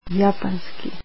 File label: Japanese, croatian